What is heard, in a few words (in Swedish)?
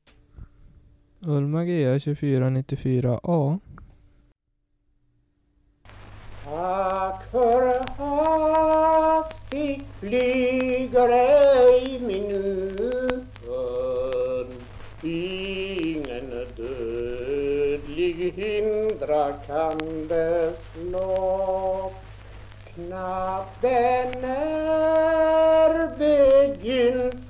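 Årsunda Gästrikland, dialekter; Svenska sagor; Folkminnen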